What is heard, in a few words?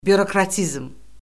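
"bürokratizm", Azerbaijani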